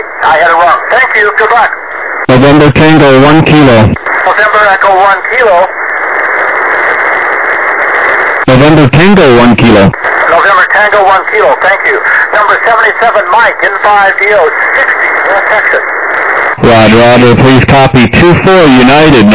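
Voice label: upper sideband 21MHz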